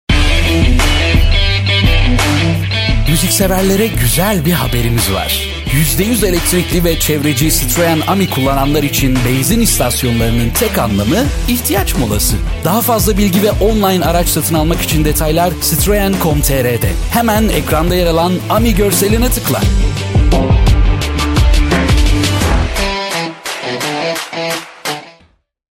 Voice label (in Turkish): seslendirme reklam